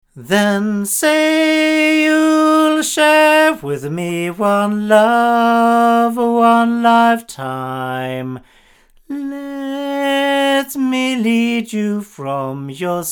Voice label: Avant CK-7 omni male

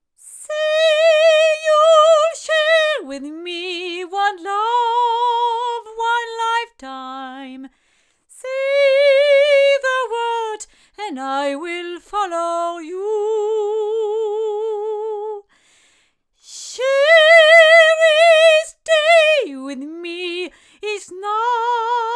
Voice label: female; CM2; LineAudio

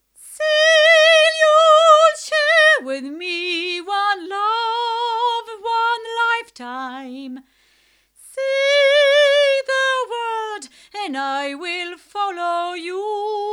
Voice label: Yoga DM-564 female, microphones

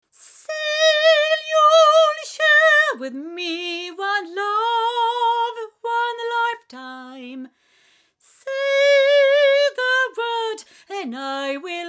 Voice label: TLC90 female, microphones recordings; Pearl

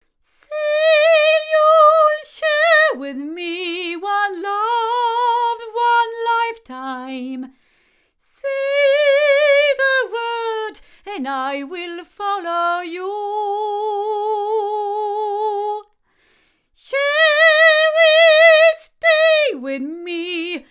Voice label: Manley Reference cardioid female, microphones

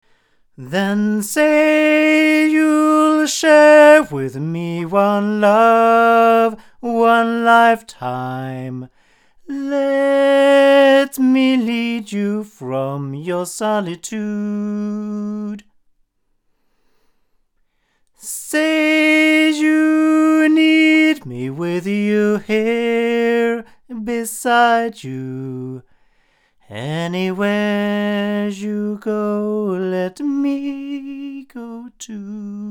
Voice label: Earthworks QTC1 male, microphones

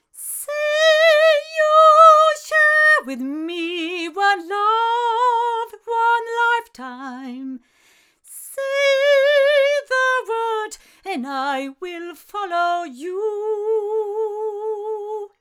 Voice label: AudioTechnica AT4033A female

